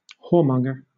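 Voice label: "whoremonger", British English; pronunciations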